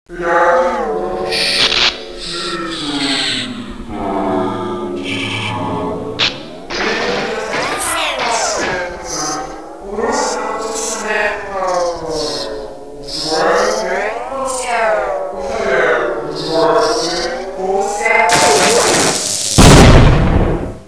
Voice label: vox female

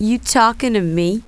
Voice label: cuss, female, profanity; kingpin loc

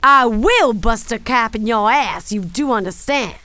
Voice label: angry, female; kingpin loc; mona

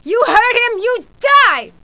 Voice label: fight, female; kingpin loc; blunt

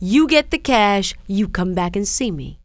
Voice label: come back, female; kingpin; hiredgirl

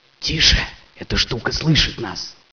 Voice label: lower voice, scientist

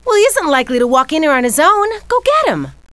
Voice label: female hurry; kingpin loc; bambi

